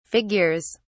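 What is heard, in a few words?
"figures", English, British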